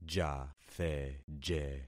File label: "jatheje", concat syllables; Affixing experiment